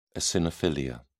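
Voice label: "eosinophilia", English, British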